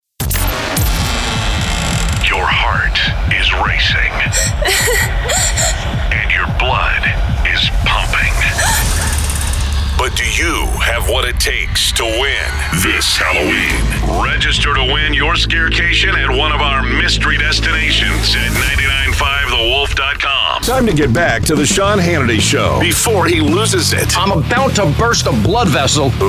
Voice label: Radio Imaging